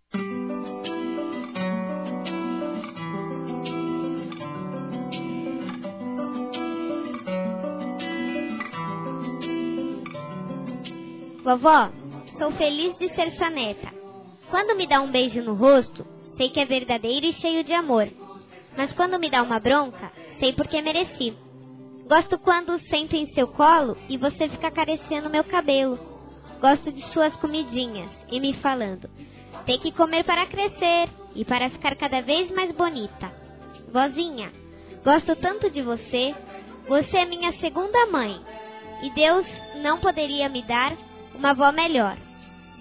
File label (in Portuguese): ANIV. AVÓ CRIANÇA-F, Telemensagens; Aniversário Infantil